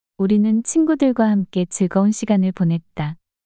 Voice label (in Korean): 우리는 친구들과 함께 즐거운 시간을 보냈다 문장 여자